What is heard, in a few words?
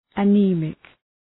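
"anemic", English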